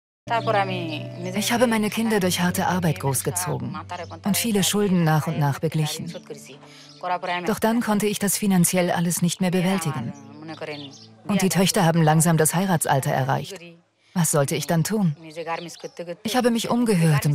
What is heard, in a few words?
voice-over; bei anruf herz